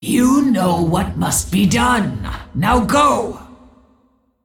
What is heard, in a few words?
Female Dragon